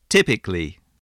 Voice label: "typically", English spelling voice